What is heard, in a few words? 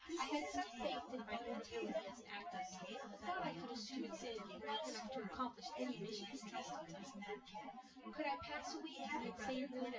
female synth conv 250ms 5cm sim